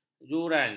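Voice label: "juran", Malay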